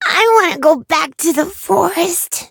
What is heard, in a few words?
defeat, en; Cookie Run